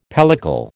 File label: "pellicle", American